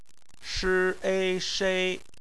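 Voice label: "shei" bopomofo, Chinese; phonetics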